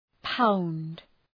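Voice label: "pound", English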